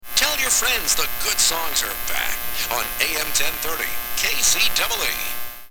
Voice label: station call; KCEE